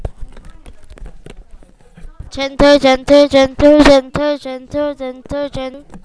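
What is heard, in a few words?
"gentle", spoken word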